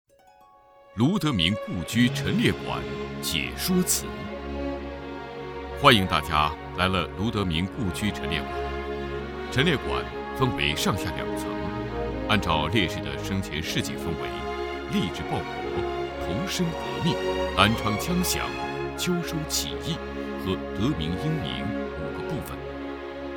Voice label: 男 纪录片 解说词; 卢德铭故居陈列馆

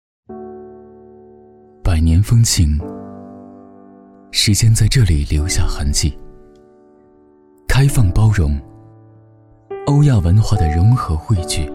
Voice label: 男 文艺讲述; 哈尔滨

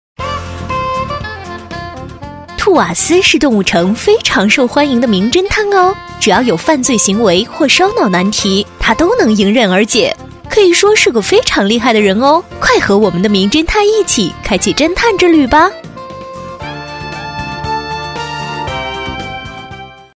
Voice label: 女 可爱专题 动漫介绍

